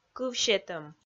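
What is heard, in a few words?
"кыв сетӧм", Komi, female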